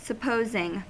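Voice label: "supposing", US English; pronunciations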